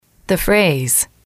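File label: the phrase, female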